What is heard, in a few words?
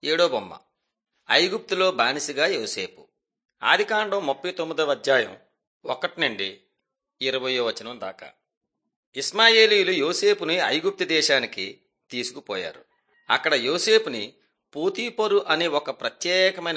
telugu bangladesh; mighty men of god